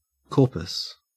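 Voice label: "corpus", Australian English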